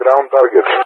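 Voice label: "ground targets", English, GREECE; DCS; Allied Flight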